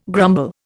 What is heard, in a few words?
"grumble", Indian